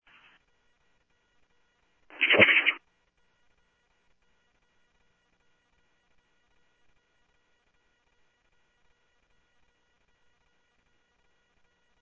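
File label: police department, scanner audio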